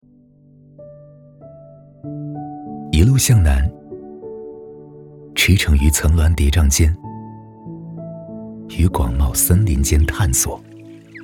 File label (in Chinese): 情感类; 一路向南